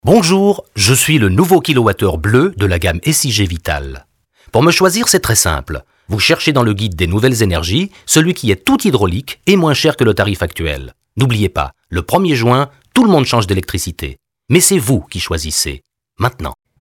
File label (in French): Pub, voix-off; casting de voix-off; taurus-studio